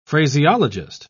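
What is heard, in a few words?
"phraseologist", English, British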